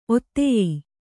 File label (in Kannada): "ಒತ್ತಾಯ", kannada